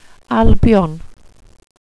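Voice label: "albion", welsh pronunciations; Wales/welsh names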